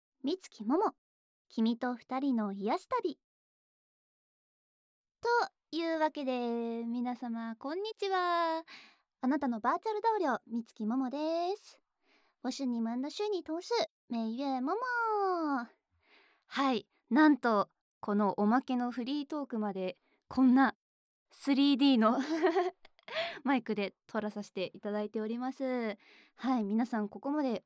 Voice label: フリートーク, ASMR

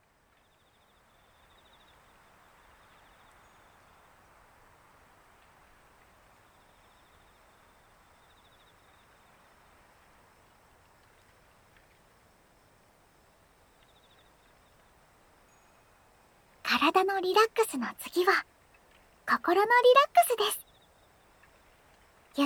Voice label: 癒しの囁き; マンチカン猫編

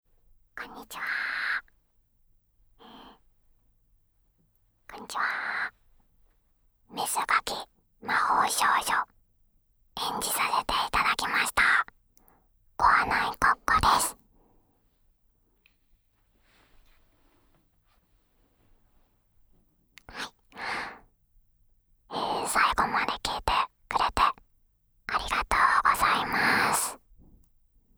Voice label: フリートーク

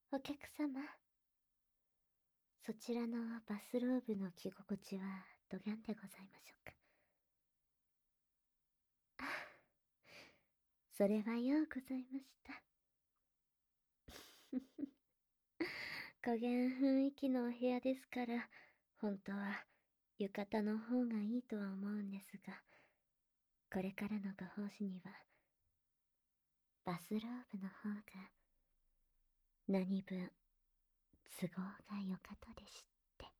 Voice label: マッサージのご奉仕でございます, ASMR

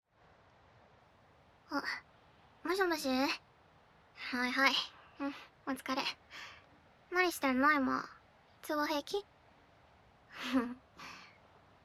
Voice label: 通話, ASMR; 即おち！; 液体音無し

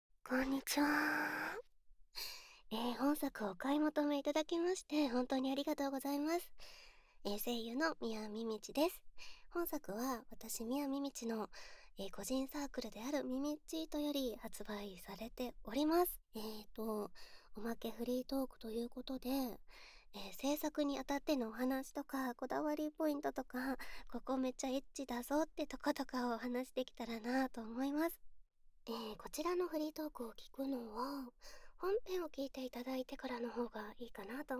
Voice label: フリートーク

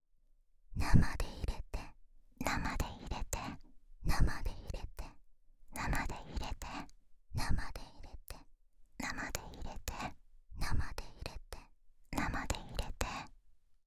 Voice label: 淫語連呼 ナマで挿れて

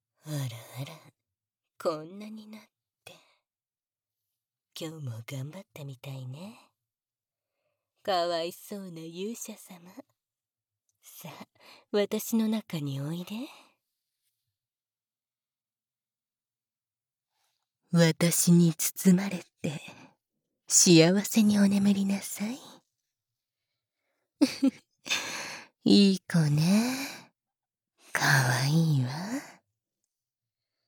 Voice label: おやすみボイス

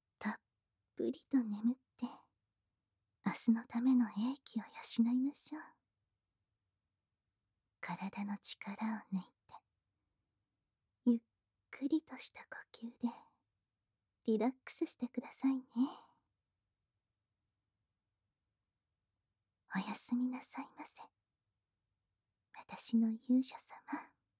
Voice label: おやすみボイス

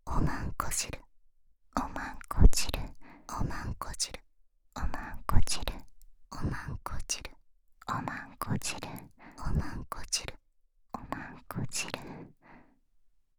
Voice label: 淫語連呼 おまんこ汁

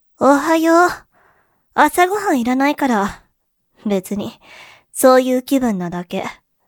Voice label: 水曜 妹, おはよう

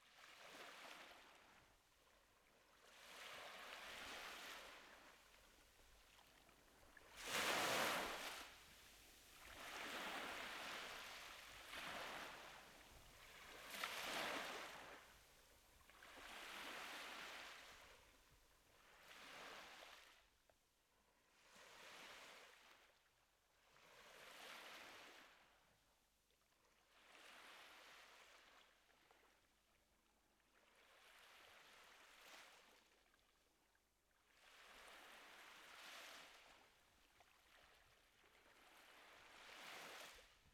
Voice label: キス 耳舐め; 水着コスにお色直しからのイチャイチャ撮影（キス、パイズリフェラ、耳舐め太ももコキ）

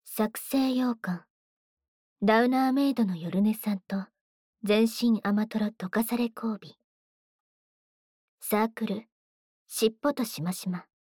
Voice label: タイトルコール

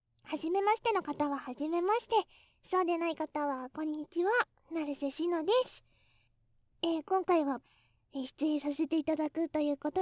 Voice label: 自己紹介 企画説明, ASMR

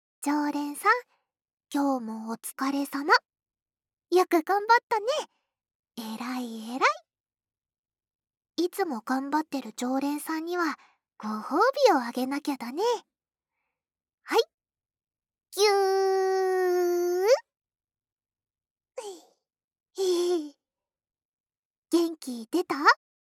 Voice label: シチュエーションボイス; 10日間限定特典 和み処 一番星～距離感の近い甘えん坊な仲居『月さん』が一生懸命ご奉仕する癒しの 一日～

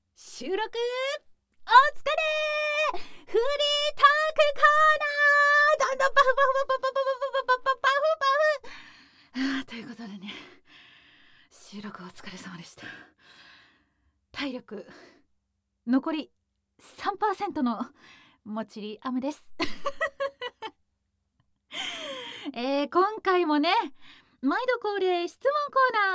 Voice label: フリートーク; 狐キョンシー

